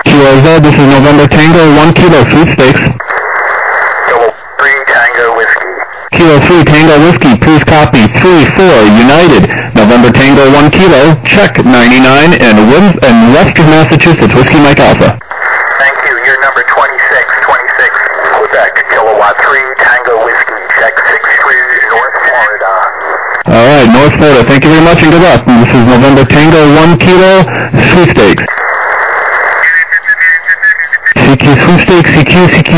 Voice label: USB 21MHz

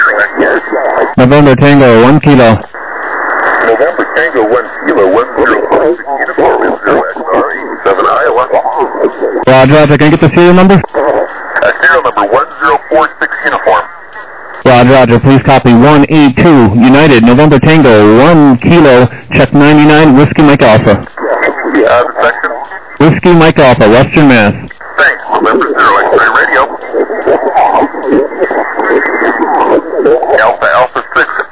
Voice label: SSB USB 14MHz

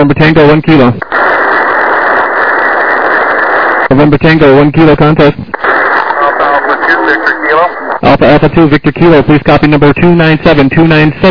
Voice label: LSB 7MHz; SSB

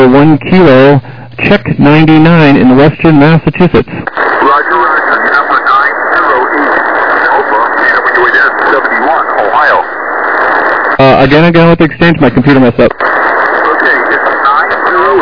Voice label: LSB 7MHz

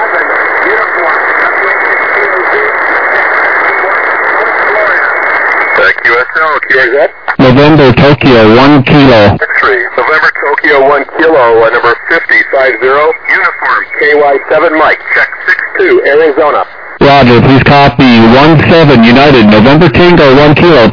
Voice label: USB, 21MHz

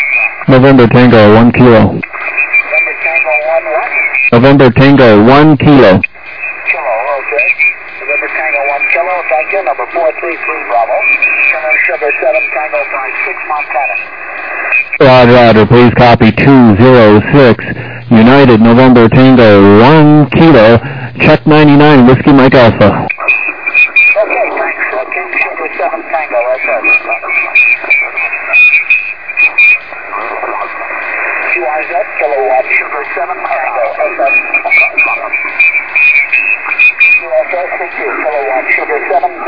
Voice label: USB 14MHz

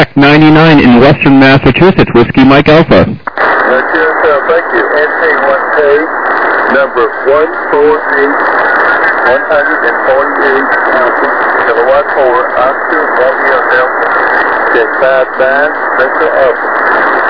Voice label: SSB LSB 7MHz

